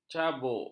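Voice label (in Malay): "cabuk", Malay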